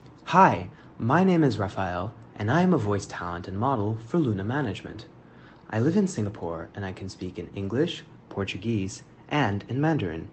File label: English